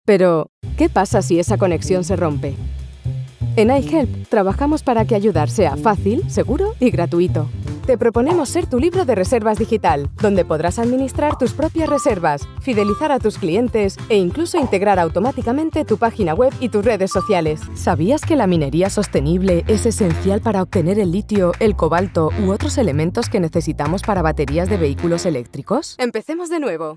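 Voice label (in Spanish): generico España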